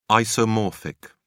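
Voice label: "isomorphic", British English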